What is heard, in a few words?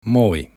hello, finnish